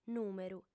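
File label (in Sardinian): "nùmeru", Sardinian, female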